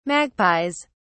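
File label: "magpies", British English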